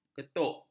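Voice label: "ketuk", Malay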